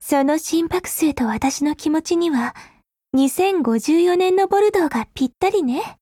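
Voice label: Japanese; Groza; Dreamscape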